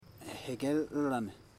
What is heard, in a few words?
"womans organ", Ipili